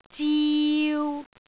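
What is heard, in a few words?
"ziu3", Cantonese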